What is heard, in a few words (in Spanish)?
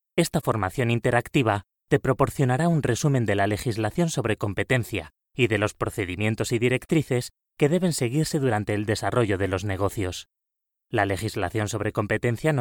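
e-learning, Spain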